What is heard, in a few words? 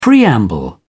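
"preamble", British English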